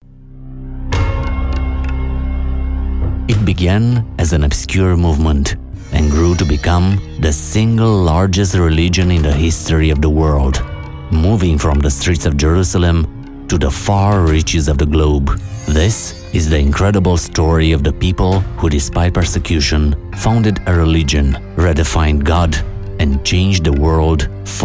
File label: Documentary